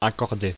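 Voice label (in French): "accorder" French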